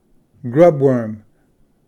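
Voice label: "grubworm", English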